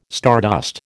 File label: "stardust", American